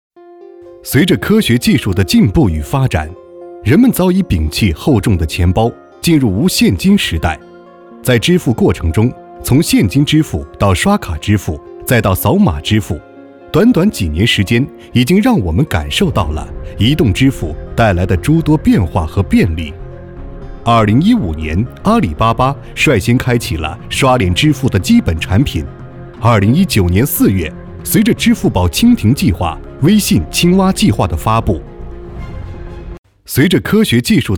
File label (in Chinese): 国语男; 科技; 移动支付